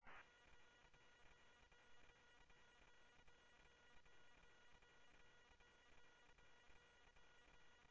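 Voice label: Sheriff Lake Patrol, scanner audio trunk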